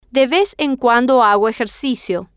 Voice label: de vez en cuando hago ejercicio, Spanish